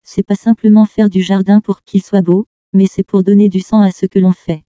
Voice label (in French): femme